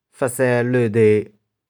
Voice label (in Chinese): "feh4sae1loe2de3", 玉環